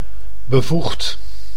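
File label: "bevoegd", Dutch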